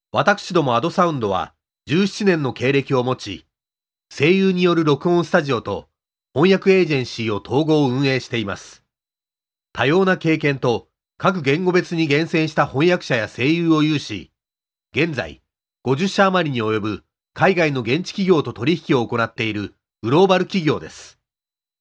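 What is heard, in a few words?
Japanese, male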